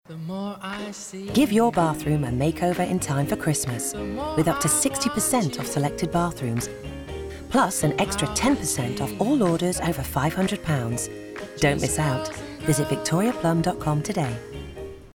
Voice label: female English Lancashire accent commercial; victoria plum